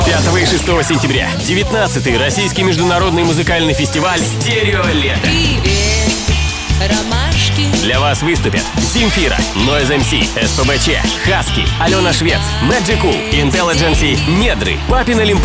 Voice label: russian promos

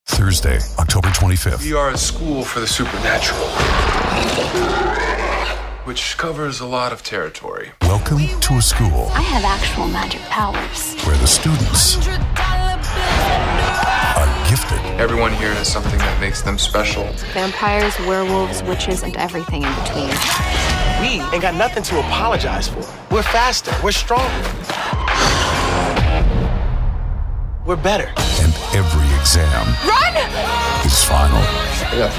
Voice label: english canadian movie trailers

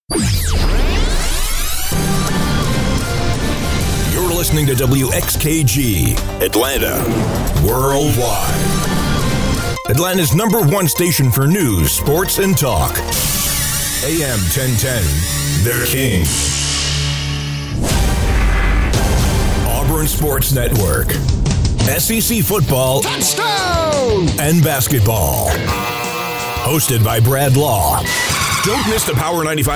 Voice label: english american radio imaging

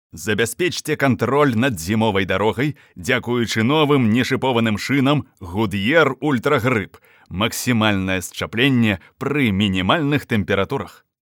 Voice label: belarusian commercial

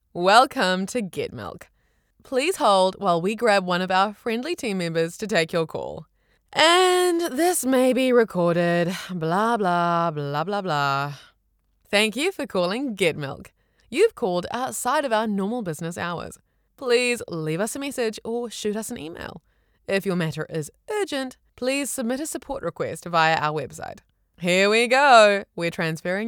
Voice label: english new zealand ivr

